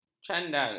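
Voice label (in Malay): "candal", Malay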